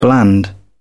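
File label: "bland", British English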